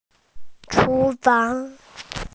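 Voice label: "chu fang" Chinese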